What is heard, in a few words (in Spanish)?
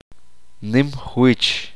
uspanteko ancho